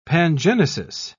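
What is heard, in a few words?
"pangenesis", British English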